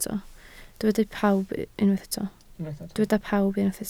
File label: "pawb", Welsh